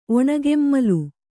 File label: "ಒಣಗೆಮ್ಮಲು", Kannada